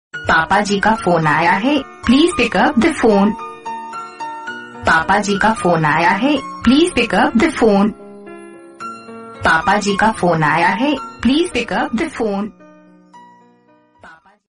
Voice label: phone call

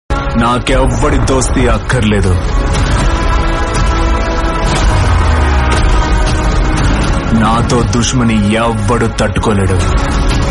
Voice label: telugu dialogue; kgf 2

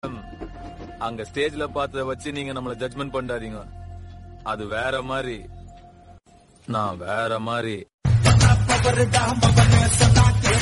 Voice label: attitude dialogue

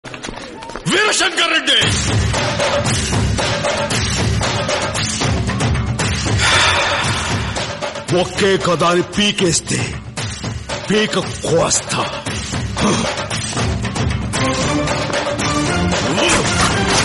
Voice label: telugu dialogue, attitude; indra; chiranjivi

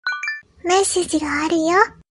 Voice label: you have a new message anime voice notification

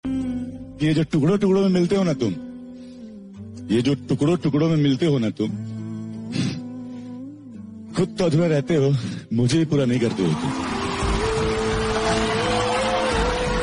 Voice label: emotional shayari

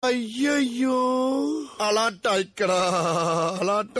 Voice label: tamil funny sms

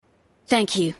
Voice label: thank you voice sms tone; pubg

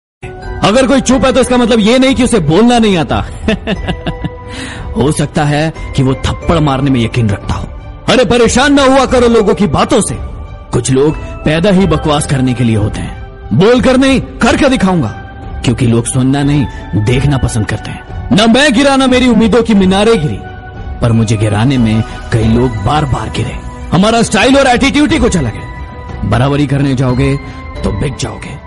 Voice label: attitude dialogue; prabhas; saaho

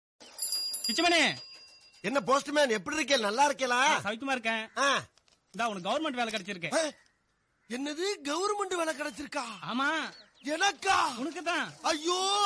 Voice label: funny; vanakkam; vadivelu

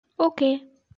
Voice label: oh yes girl voice

